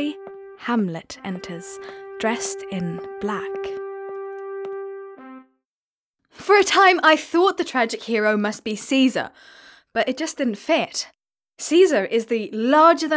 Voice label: female English with undetectable accent

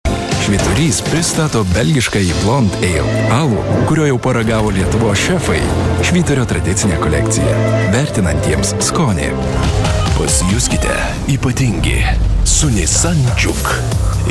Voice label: male lithuanian commercial compilation; voices; devine voices

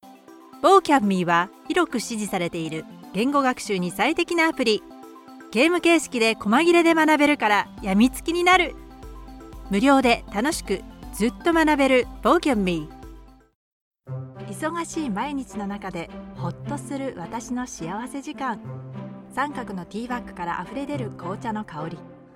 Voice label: female Japanese commercial compilation

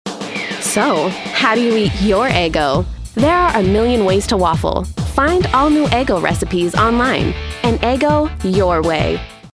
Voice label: female English USA waffles commercial; eggor

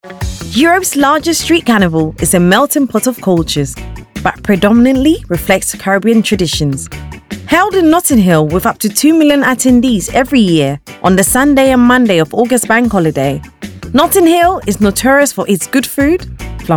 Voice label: female african and english slight ghanian accent; notting hill carnival narratio

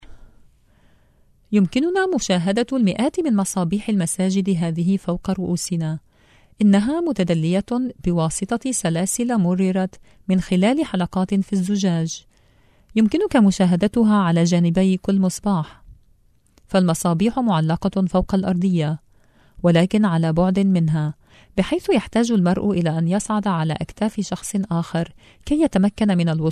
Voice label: female arabic

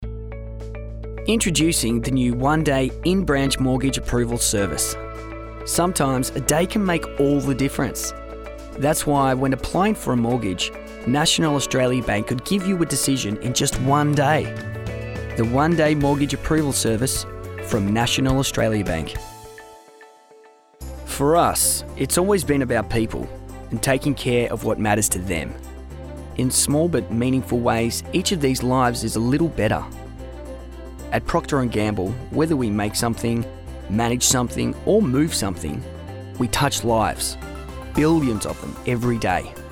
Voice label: male english australian corporate; Devine Voices

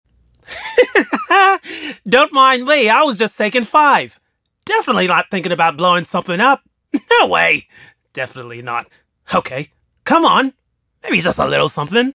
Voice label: male english usa accent cartoon voice; junkrat

